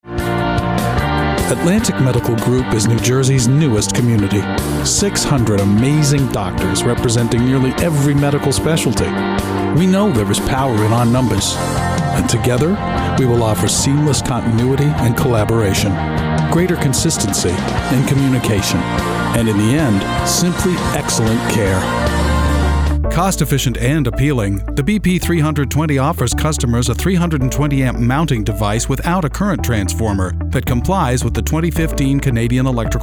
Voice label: Product Video

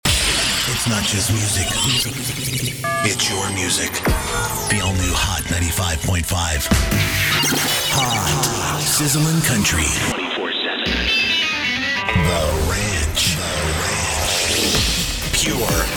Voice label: RADIO IMAGING

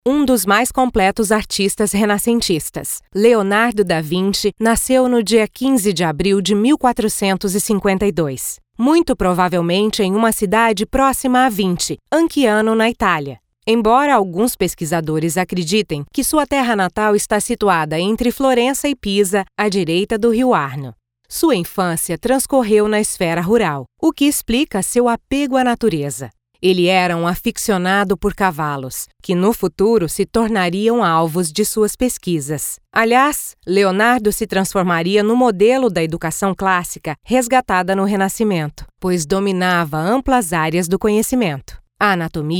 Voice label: Upbeat narration; DaVinci

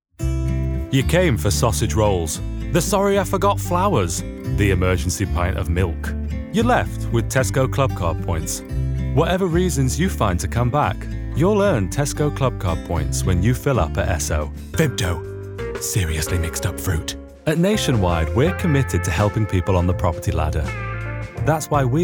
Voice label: Commercial

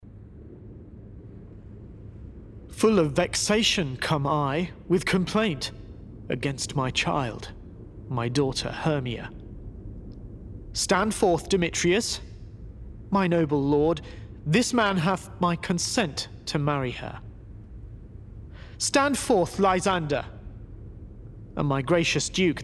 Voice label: Monologue

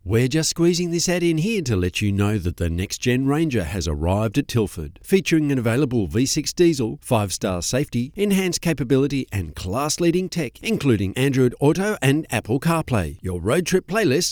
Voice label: young vo

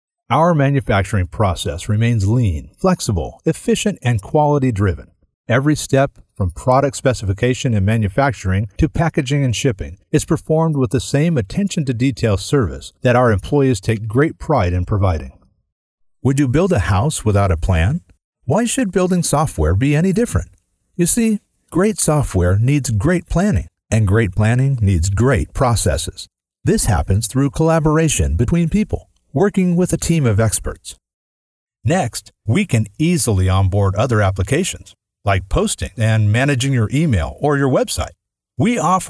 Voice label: Corporate presentations

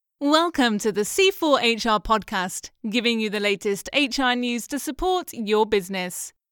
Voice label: Podcast

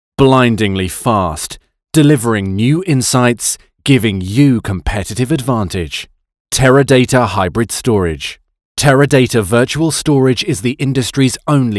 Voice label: Hard Sell

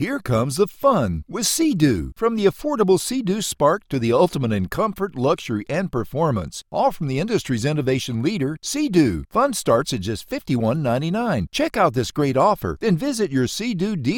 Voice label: Radio Commercial; SEA-DOO

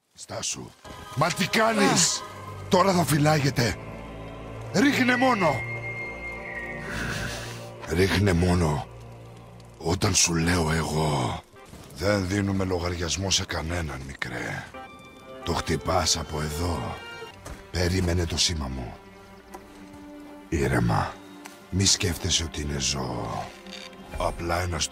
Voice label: Greek; GodOfWar; KRATOS

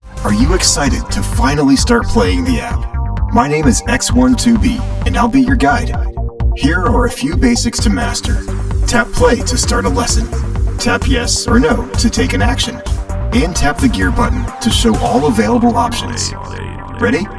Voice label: Robot, Robot Character, Vocal Effects; Video Games

